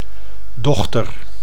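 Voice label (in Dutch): "dochter", Dutch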